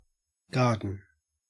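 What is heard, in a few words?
"garden", Australian English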